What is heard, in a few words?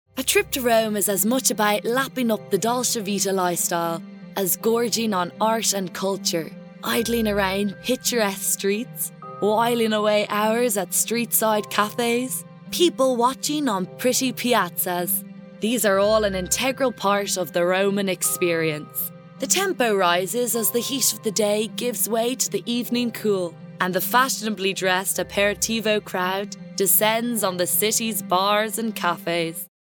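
English, Documentary, Irish; GoLocalise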